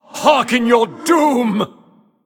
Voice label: Male Ethereal Attack